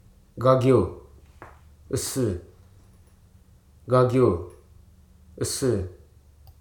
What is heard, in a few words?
"hole", Hruso